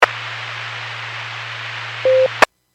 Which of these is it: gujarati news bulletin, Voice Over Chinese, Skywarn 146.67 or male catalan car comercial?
Skywarn 146.67